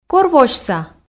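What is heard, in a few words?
"кор восьса", Komi, female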